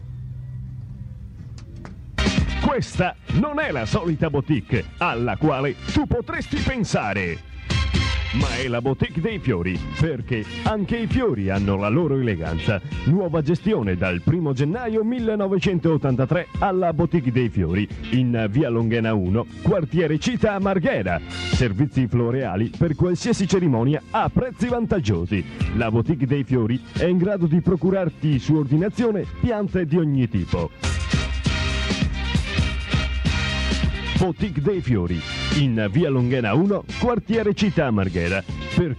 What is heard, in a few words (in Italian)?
pubblicita, FM; rmi boutique fiori; La pubblicità nelle radio mestrine